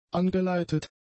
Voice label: "angeleitet", German; adjectives

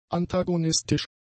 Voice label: "antagonistisch", German; adjectives

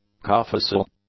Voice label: "cophasal", English; adjectives

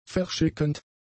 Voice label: "verschickend", German; adjectives

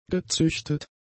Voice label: "gezüchtet", German; adjectives

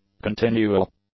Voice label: "continual", English; adjectives

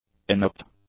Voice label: "inapt", English; adjectives

